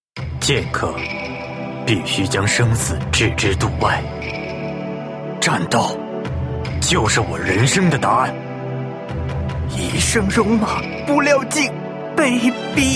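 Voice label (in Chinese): zhongnian, middle-aged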